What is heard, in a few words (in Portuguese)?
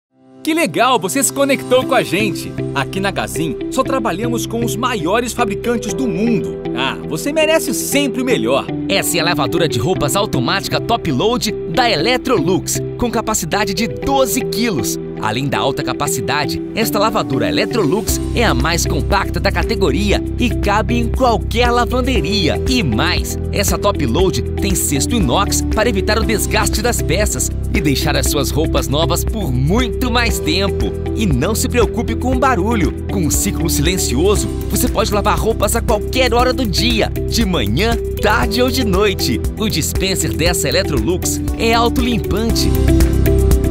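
Locução, Voz Jovem, Português - Brasileiro, Masculino; Voz Varejo; Locução para SPRITE 2.0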